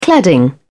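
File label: "cladding", British English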